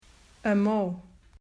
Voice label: "Amou", Portuguese